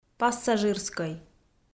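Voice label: "пассажирскӧй", Komi, female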